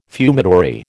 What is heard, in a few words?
"fumitory", American